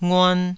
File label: "nguan2", Taiwanese